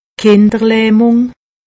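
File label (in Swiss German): "kinderlahmung", Alsatian; medecine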